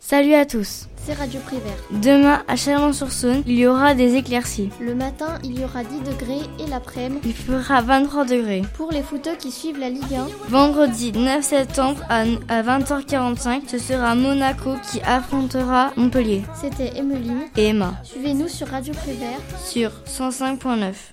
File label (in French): meteo foot; radio prevert